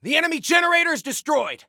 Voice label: Enemy Generator, Soldier Male; Soldier Male 01

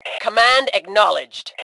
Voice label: Command Acknowledged, Female; T2 Female 04

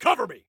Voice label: Defend Me, Soldier Male

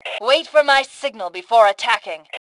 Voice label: Attack Wait, Female; T2 Female 03

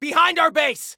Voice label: Warn Enemy Behind Our Base, Male; T2 Male 03

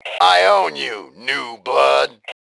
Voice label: Taunt Obnoxious, Male; T2 Male 04

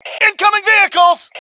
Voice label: Warn Vehicle, Male; T2 Male 01

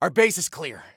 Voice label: Base Clear, Soldier Male; Soldier Male 01

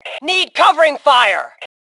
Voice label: Need Cover, Female; T2 Female 04

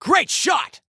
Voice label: Compliment Great Shot, Soldier Male; Soldier Male 01

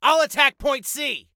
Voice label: ATTACK, Soldier Male

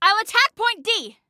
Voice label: ATTACK, Female; T2 Female 01

